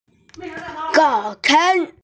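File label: "zao can" Chinese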